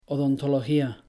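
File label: "odontología", Spanish; pronouncing c g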